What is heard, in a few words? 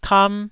"kam1", taiwanese syllable